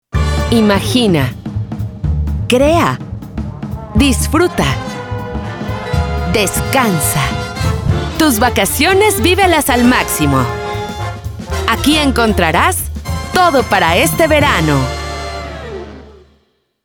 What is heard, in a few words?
Modern Premium Cool